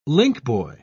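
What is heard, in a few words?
"linkboy", British English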